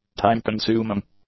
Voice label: "time-consuming", English; adjectives